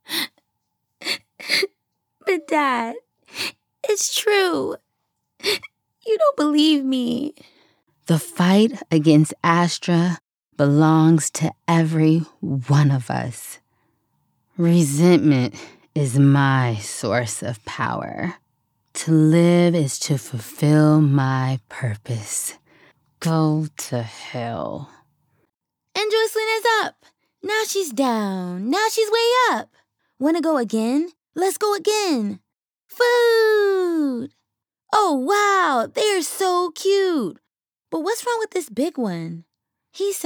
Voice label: character